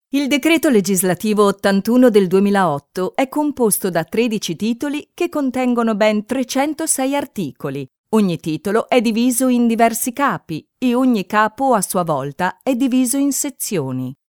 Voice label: Voice Over Italian Female

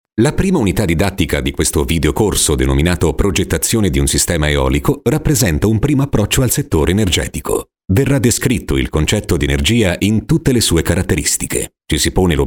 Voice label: Voice Italian

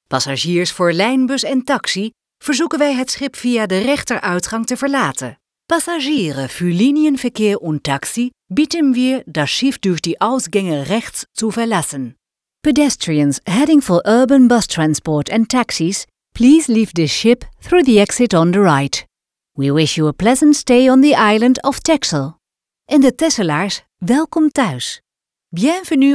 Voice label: Voice over Dutch Female

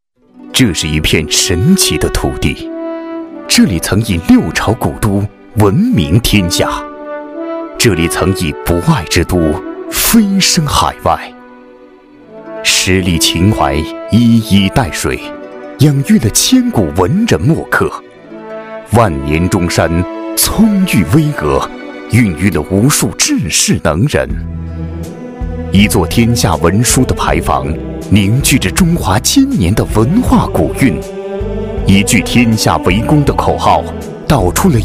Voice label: Mandarin Voice; Decibel

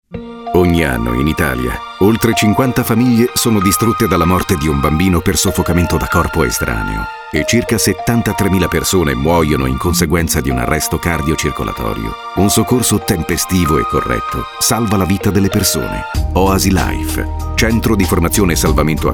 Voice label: Voice Over Italian

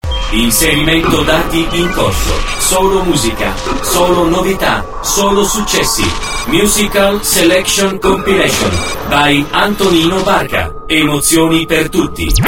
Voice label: Liner

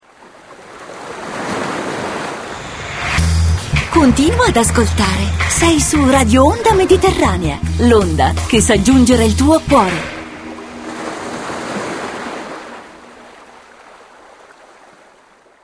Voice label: Liner

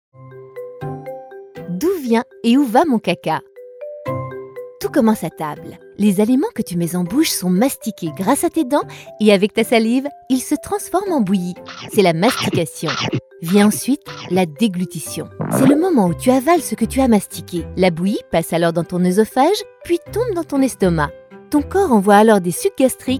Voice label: voice over French female

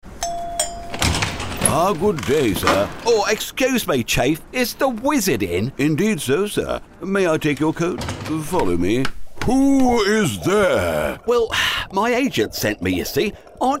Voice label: Voice English cartoon

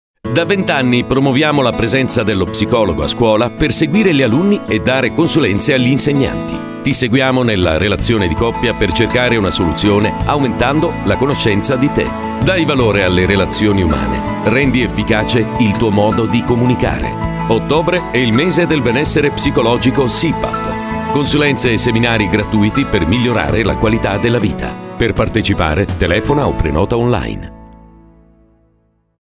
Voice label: Voice Over Italian

